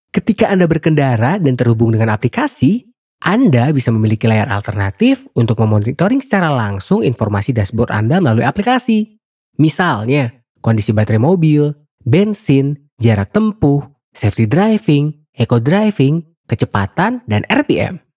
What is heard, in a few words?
CASUAL, Warm, Deep, Ominous, Dark, Calming; Indonesia